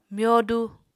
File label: "myodu", Apatani